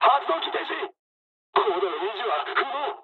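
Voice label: Japanese damaged engine mood med; War Thunder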